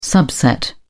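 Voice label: "subset", British English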